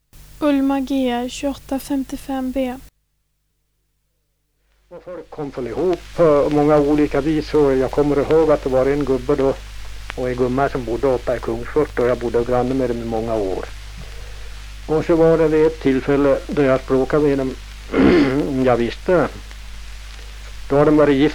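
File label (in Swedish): Järbo Gästrikland, dialekter; Folkminnen; Svenska sagor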